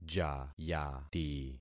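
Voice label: "jayati", concatenated syllables; Affixing experiment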